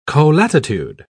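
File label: "colatitude", British English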